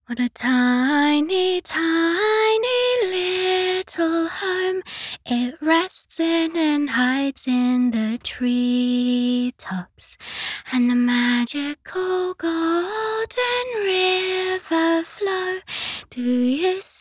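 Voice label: voice English idle; Fina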